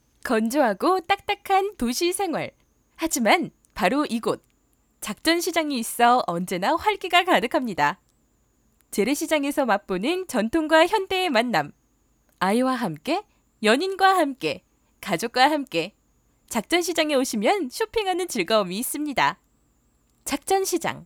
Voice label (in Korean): 발랄 나레이션